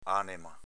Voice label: "anema", dialetto veneto; ànema s.f. anima

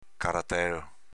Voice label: "caratelo", dialetto veneto; s.m. botticella

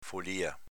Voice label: "folìa", dialetto veneto

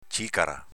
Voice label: "cicara", dialetto veneto

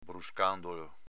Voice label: "bruscandolo", dialetto veneto

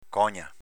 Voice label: "cogna", dialetto veneto

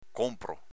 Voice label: "conpro", dialetto veneto

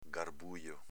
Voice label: "garbujo", dialetto veneto; s.m. garbuglio, intrigo

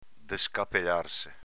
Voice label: "descapelarse", dialetto veneto

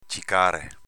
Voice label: "cicare", dialetto veneto

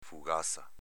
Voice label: "fugaza", dialetto veneto; s.f. focaccia, pane casereccio

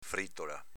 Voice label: "fritola", dialetto veneto

